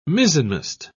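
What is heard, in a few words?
"mizzenmast", British English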